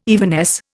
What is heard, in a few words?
"evanesce", Indian